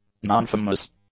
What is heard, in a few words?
"nonfamous", english; adjectives